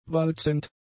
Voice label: "walzend", German; adjectives